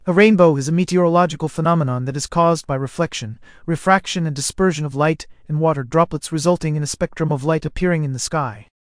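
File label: speaker, American English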